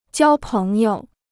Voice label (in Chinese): "交朋友", Chinese